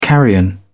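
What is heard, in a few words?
"carrion", English, British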